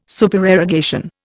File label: "Supererogation", Indian